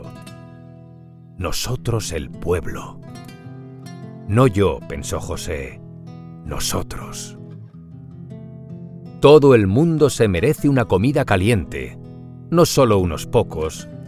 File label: spanish audiobooks